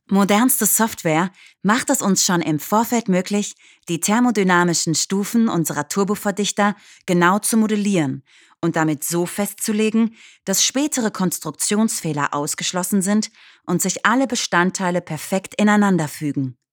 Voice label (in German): Erklaervideo